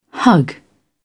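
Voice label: "hug", English, uk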